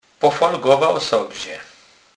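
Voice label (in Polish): "pofolgowac", Kurpie dialect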